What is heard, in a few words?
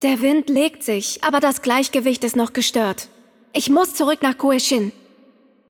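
German game outro; de DE; VO; bloom minigame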